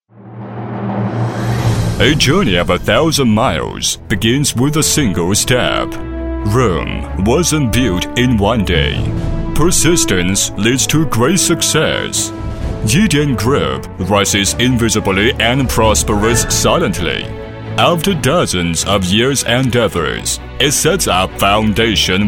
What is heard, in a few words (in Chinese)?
英语男, 浑厚大气; 伊电集团